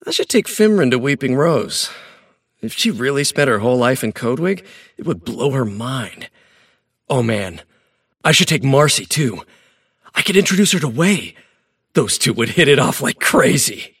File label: monologue; davion; dota